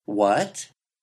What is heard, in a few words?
speech Rising; the word "what"; pitch change; Macaulay Library